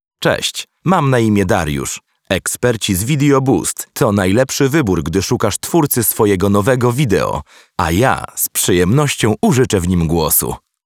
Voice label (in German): Polnisch, voiceover; internationale voiceover